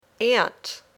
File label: "ant", English